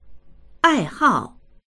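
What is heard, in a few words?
"ai hao", Mandarin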